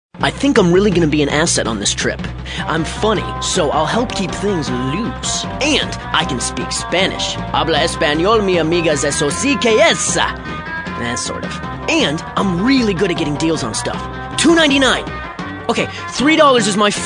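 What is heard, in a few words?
male Commercial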